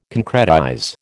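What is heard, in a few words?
"concretize", American English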